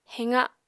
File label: "heqa", Apatani